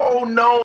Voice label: "oh no", male